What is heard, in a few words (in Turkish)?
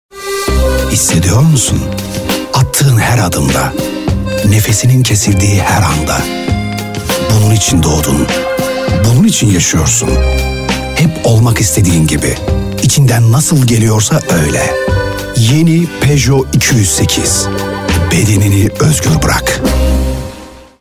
reklam; peugeot